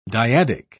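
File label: "dyadic", British English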